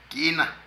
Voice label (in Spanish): Chile, Zapoteco de Petapa; Vocabulary